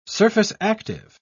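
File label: "surface-active", British English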